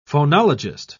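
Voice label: "phonologist", British English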